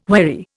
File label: "wherry", Indian